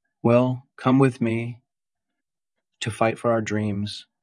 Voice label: Voice Acting es M